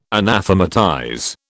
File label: "anathematize", British